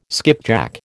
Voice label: "skipjack", American